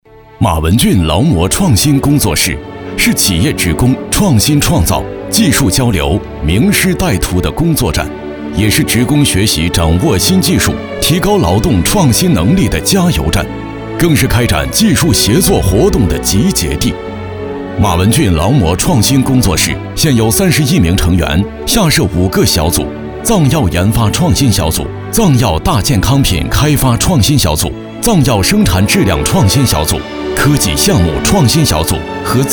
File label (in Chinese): 男 汇报 工作站